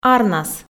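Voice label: "арнас", Komi, female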